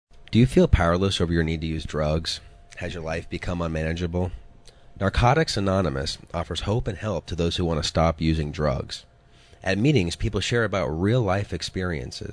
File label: Male